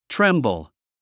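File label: "tremble", English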